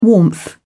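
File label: "warmth", English, British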